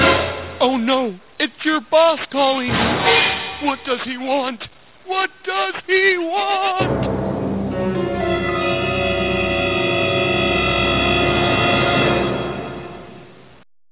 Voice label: Male Callin